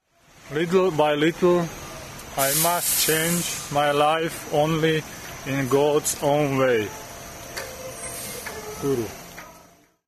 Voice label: poem